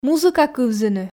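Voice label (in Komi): "музыка кывзыны", Komi, female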